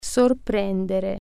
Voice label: surprise, italian